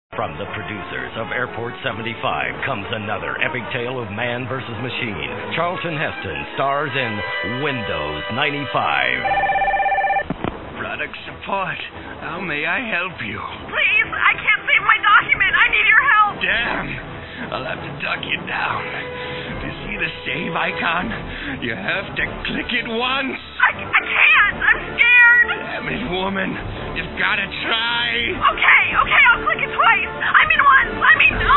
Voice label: Commercials win95